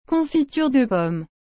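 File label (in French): "confiture de pomme", French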